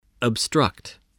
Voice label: "obstruct", voice, spelling